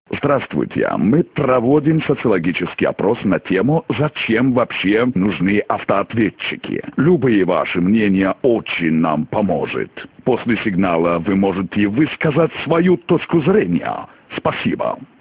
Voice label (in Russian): Avtootvet